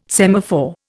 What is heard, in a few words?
"semaphore", Indian